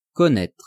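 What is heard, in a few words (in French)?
"connaitre", French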